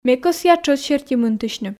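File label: Komi, female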